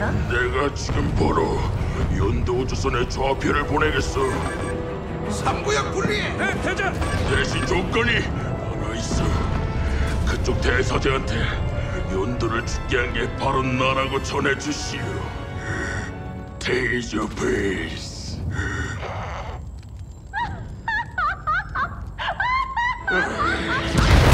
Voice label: 폭력적인 거친 30대

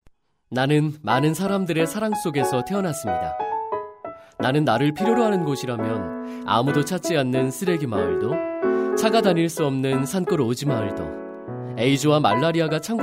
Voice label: 밝은 나레이션

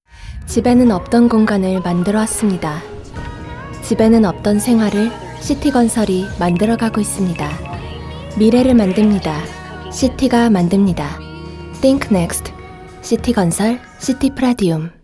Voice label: 광고 차분감성; 씨티건설

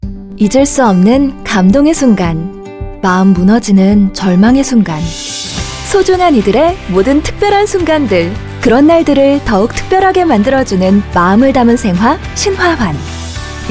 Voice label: 기본톤; 신화환

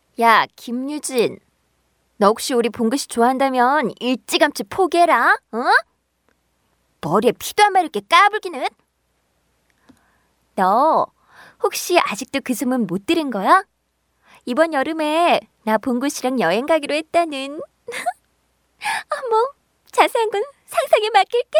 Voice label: 20대 밝은 여성

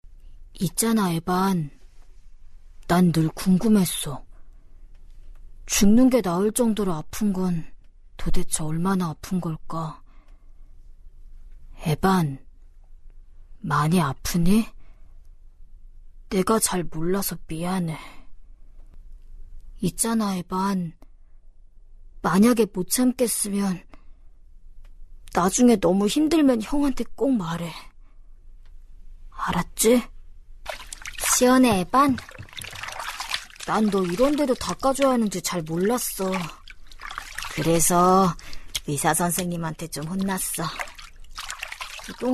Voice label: 내성적인 10대 남아 연기

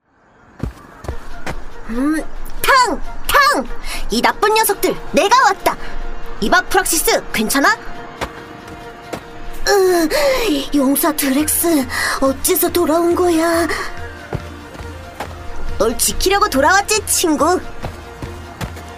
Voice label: 오디오드라마 남자 아역 명랑; 스푼

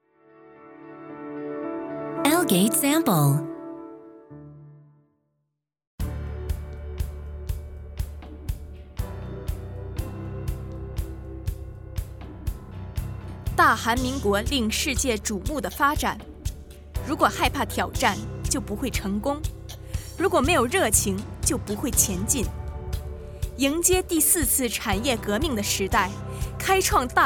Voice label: 중국 여 기본톤

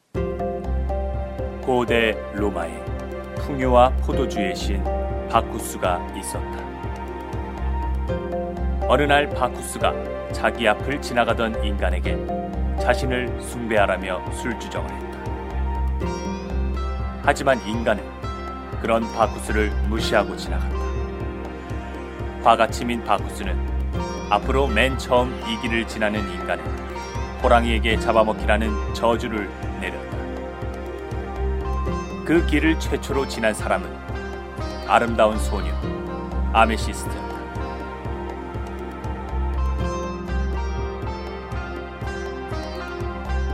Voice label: 내레이션 차분한

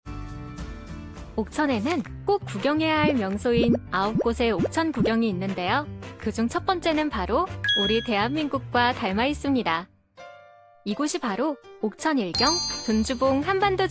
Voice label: 따뜻함 차분한 친절한

